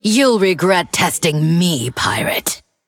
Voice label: Female Undead

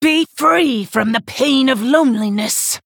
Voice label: Female Worgen

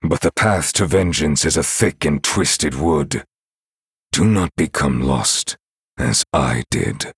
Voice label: Male Night Elf

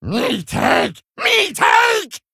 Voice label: Male Kobold Attack

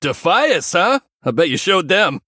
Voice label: Male Human

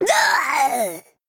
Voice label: Female Goblin Death

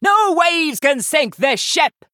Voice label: Female Human Play

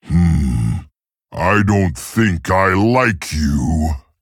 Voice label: Male Tauren Threaten

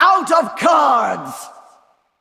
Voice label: Female Val'kyr No Cards

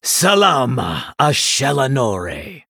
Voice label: Male Blood Elf, Start

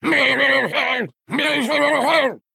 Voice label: Male Murloc Play

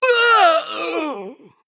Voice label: Male Human Death

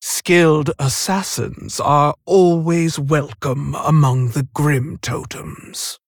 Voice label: Female Tauren Idle

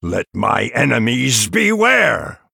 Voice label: Male Night Elf Threaten

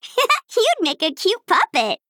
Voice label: Female Gnome Threaten

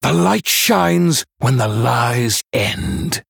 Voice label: Male Human greetings; MIRROR

